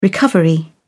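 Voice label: "recovery", British English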